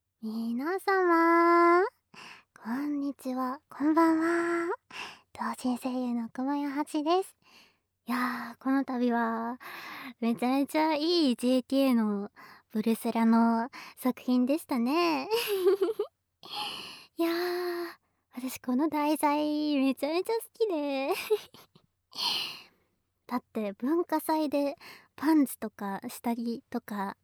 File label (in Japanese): フリートーク